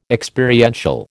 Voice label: "Experiential", American

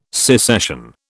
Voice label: "secession", British

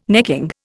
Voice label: "necking", Indian

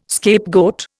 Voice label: "scapegoat", Indian

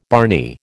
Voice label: "barney", American

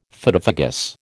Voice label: "Phytophagous", American

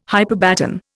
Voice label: "hyperbaton", Indian

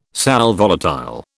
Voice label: "sal volatile", British

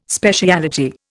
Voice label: "speciality", Indian

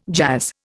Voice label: "jazz", Indian

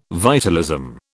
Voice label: "vitalism", British

